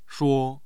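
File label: "shuo1", Mandarin Chinese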